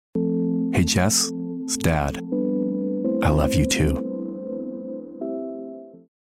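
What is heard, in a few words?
Commercial Voice Over, Heartfelt · Warm · Empathetic; Commercial Demo Clips; Hey Jess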